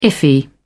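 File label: "iffy", English, British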